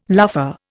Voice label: "luffa", Indian

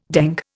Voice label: "dengue", Indian

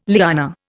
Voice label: "liana", Indian

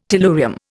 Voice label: "tellurium", Indian

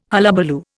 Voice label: "hullabaloo", Indian

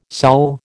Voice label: "sal", American

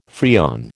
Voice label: "Freon", American

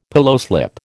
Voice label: "pillowslip", American

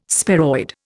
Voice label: "sparoid", Indian

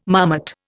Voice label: "marmot", Indian

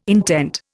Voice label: "intent", Indian

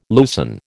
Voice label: "loosen", American

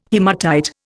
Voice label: "haematite", Indian

